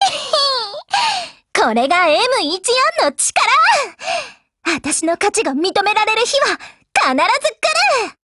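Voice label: WIN, Japanese, Voice; GF Alarm; M14Mod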